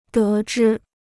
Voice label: "得知", Chinese